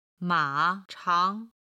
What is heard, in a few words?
"ma3chang2", Chinese